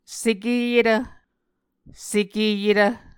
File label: "sekeyetv", Muscogee